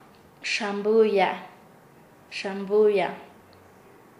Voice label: "reunirse", Nasa Yuwe; paez